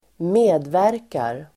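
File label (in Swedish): "medverkar", Swedish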